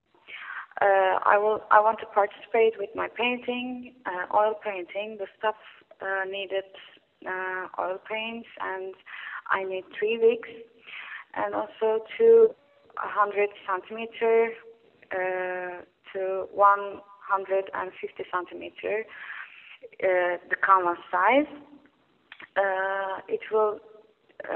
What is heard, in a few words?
Voicemail